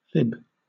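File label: "fib", British English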